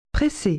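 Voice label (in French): "presser", French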